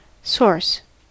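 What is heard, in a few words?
"source", American English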